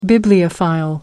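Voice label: "bibliophile", British English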